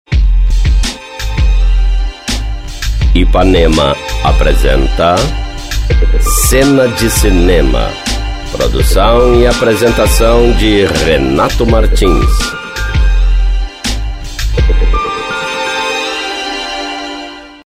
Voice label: spot, vinhetas; vinhetas 2005; IpanemaFM